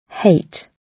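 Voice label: "hate", British English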